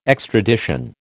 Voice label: "extradition", American

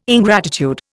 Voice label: "ingratitude", Indian

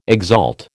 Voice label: "exalt", American

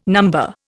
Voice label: "number", Indian

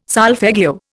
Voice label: "solfeggio", Indian

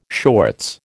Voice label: "shorts", American

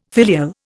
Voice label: "filial", Indian

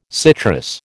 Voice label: "citrus", American

